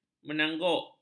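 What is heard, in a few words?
"menangguk", Malay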